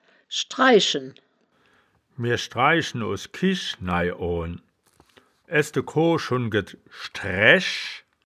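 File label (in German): "schdrai-schen", Wittlicher Wörterbuch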